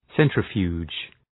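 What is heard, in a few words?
"centrifuge", English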